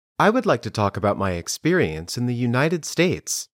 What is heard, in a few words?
Dictation